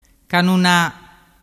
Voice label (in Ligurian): "canuna", dialettu